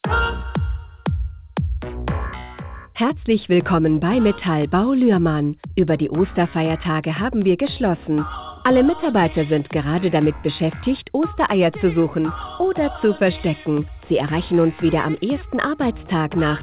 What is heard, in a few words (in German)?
Ansagen, Ostern; Metallbau Luehrmann